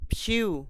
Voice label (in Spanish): "verde", Kumiai; INALI